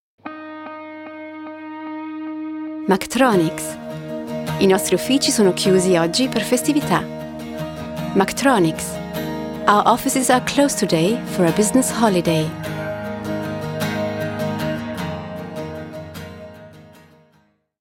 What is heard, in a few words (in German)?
Anrufbeantworter ital engl